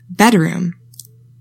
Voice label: "bedroom", english; 3000 words

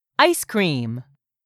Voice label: "ICE CREAM", english; 3000 words

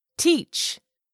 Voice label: "teach", English; 3000 words

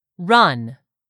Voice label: "RUN", english; 3000 words

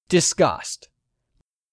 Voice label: "disgust", English; 3000 words

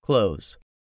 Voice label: "CLOSE", English; 3000 words

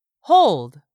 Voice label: "hold", English; 3000 words

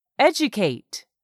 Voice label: "educate", English; 3000 words

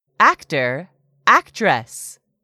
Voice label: "actor actress", English; 3000 words

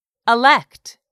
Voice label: "elect", English; 3000 words

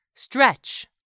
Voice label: "stretch", English; 3000 words

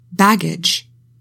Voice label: "baggage", english; 3000 words

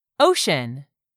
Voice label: "ocean", English; 3000 words

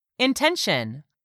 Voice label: "intention", English; 3000 words

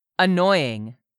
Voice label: "ANNOYING", English; 3000 words

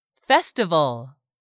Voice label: "festival", English; 3000 words

